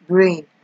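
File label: "brain", Nigerian Pidgin, female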